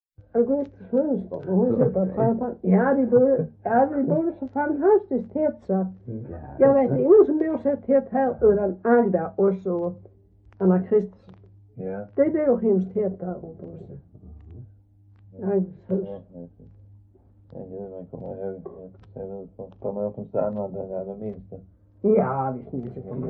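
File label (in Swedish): Röddinge Skåne, dialekter; Folkminnen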